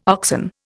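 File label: "oxen", Indian English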